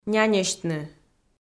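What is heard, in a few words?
"няньӧсьтны" Komi-Zyrian, female; kpv audio dict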